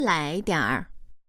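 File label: "lai2 dianr3", Chinese, female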